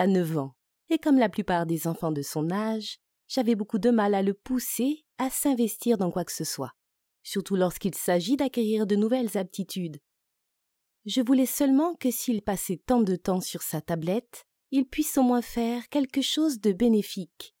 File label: Piano Fr VO Female; Simply Piano